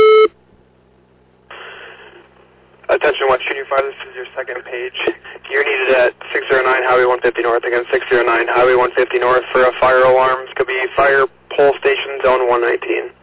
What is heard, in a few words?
West Union Fire, dispatch